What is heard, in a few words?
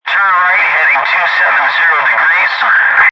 Military Radio Communication Short Wave Radio Walkie Talkie; Radio Dispatch Sound Effects